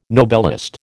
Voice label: "Nobelist", American